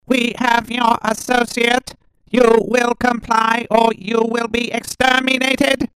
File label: Dalek Sample